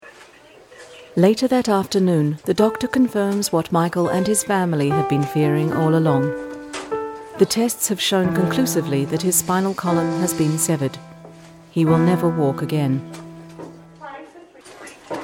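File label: hospital documentary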